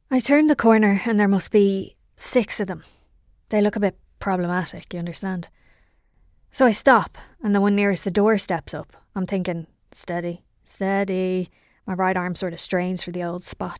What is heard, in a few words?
Home Studio Read